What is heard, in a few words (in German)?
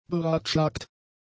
"beratschlagt", German; adjectives